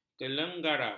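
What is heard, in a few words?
"kelenggara", Malay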